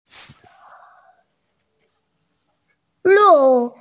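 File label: "lu4", Mandarin Chinese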